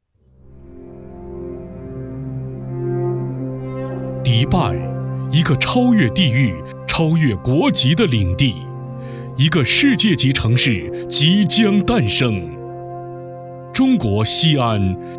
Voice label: 男 专题 高涨振奋; 环球广场

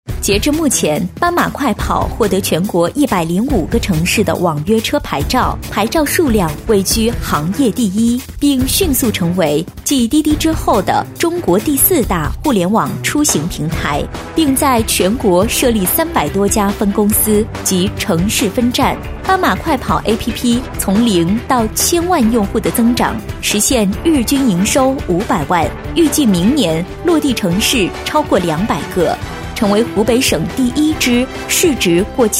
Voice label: 宣传片配音; 斑马快跑APP; 普通话

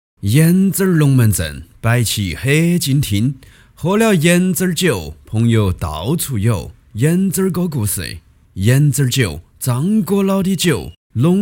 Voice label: 男 方言 摆龙门阵试音

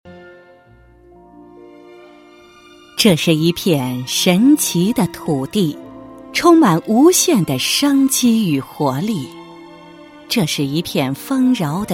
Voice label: 女 专题, 国语女声配音; 蓬安政府

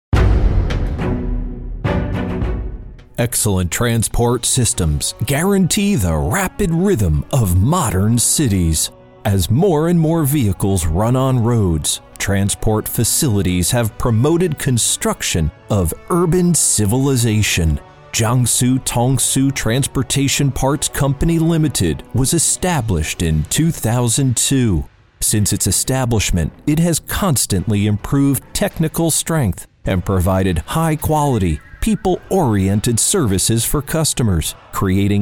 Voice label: 外籍男 大气激情磁性; 交通局

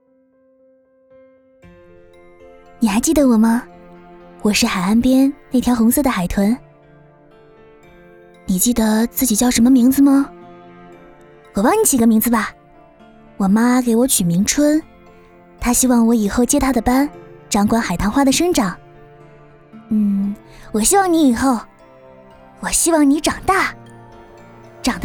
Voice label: 独白 17岁少女的感觉

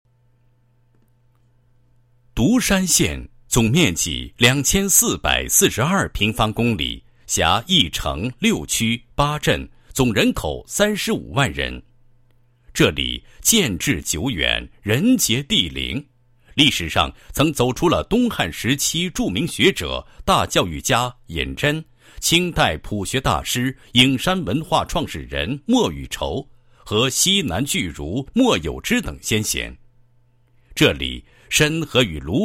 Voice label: 男 学校 解说词; 独山职校解说词配音稿

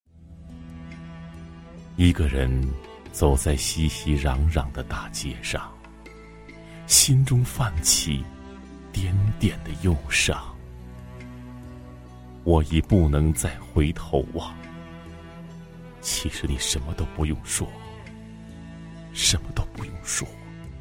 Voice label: 男 朗诵 深情朗诵, 国语男声配音 磁性; 爱别离

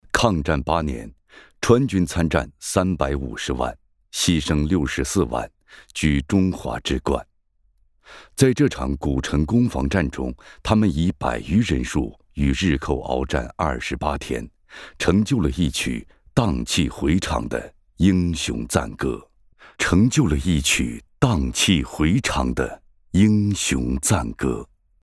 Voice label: 男 抗战8年

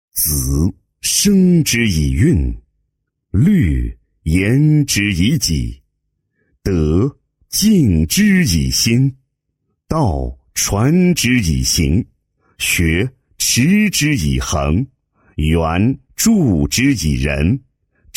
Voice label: 男 企业, 专题解说词; 威海紫光

